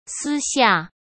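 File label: "私下", Mandarin Chinese; hsk; chinese-flashcards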